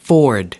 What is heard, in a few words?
"ford", us